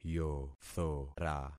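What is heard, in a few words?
"yothora", concatenated syllables; Affixing experiment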